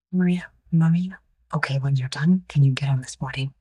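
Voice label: Voice Acting es, female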